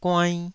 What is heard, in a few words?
"guainn2", taiwanese